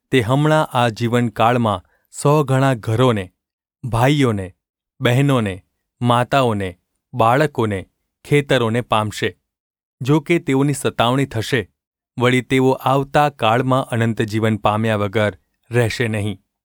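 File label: gu ulb mrk, Gujarati; mrk 10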